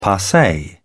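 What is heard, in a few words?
"passe", British English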